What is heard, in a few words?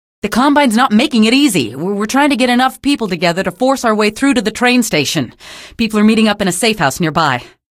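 citizen safehouse, female; vo; npc; source engine; episodic; episode 1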